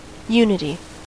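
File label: "unity", American English; pronunciations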